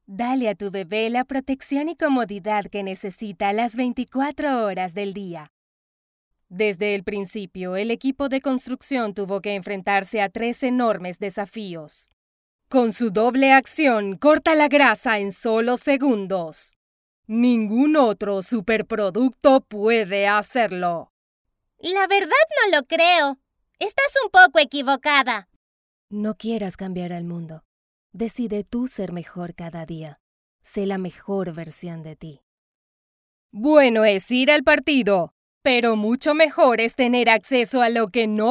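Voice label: generico, Venezuelan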